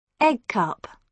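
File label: "eggcup", British English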